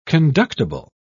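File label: "conductible", British English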